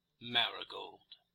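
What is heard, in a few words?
"marigold", American English; pronunciations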